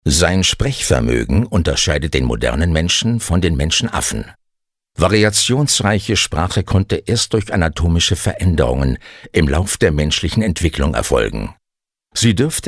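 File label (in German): commentary, Neutral; Kommunikation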